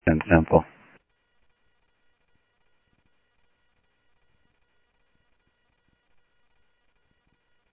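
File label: Sheriff West County, scanner audio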